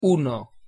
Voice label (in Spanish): "uno", es